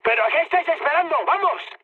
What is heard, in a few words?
attack position, mood high, speech; War Thunder